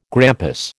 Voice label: "grampus", American